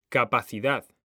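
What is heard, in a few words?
"CAPACIDAD", Spanish, male; mekanta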